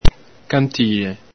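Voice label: "cantile", Veneto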